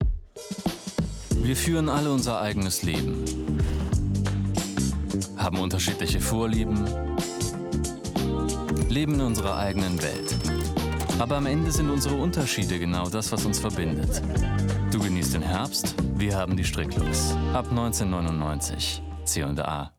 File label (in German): TV Online Werbung